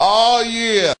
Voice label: "ah yeah", Voice